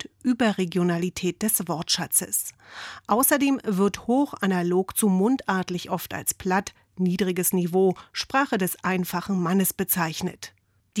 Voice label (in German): hochdeutsch; 30 x schoenstes Deutsch